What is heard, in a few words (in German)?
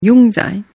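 jung sein, German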